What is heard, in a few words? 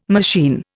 "machine", Indian